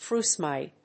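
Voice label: "frusemide", English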